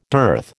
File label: "dearth", American